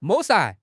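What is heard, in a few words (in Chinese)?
"抹杀", Chinese; HSK6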